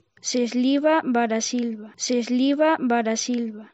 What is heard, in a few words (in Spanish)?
feliz ruso